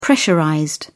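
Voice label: "pressurized", British English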